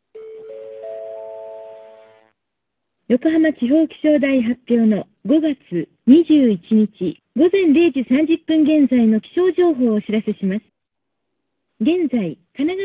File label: speex 8khz wifi